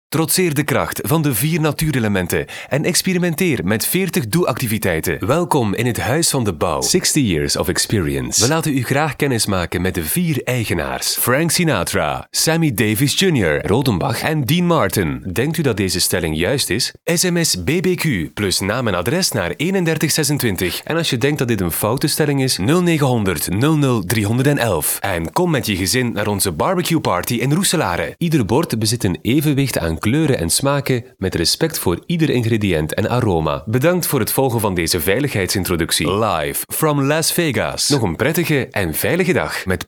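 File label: Flemish male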